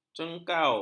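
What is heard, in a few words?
"cengkau", Malay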